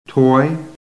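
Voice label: "toy", American English; PHONETIC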